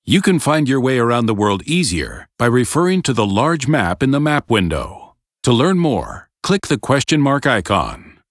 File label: tutorial voice; lineage2